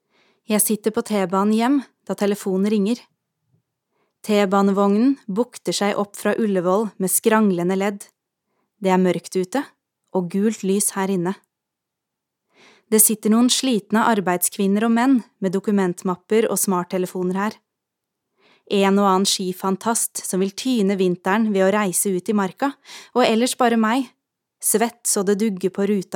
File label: NOR Audiobook F